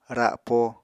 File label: "rapo", Apatani